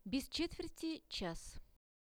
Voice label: "bez chetverti chas", Russian; RUSSIAN VISUAL DICTIONARY; time